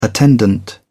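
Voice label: "attendant", English, British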